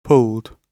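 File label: "pulled", British English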